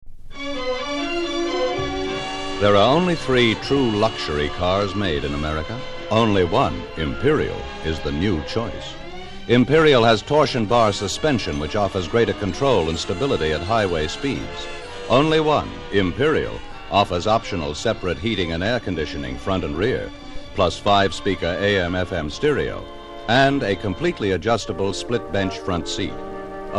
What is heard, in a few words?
radio spot